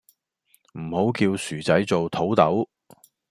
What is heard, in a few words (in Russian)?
Голоса, Гонконгский